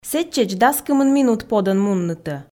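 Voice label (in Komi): сэтчӧдз дас кымын минут подӧн муннытӧ, Komi, female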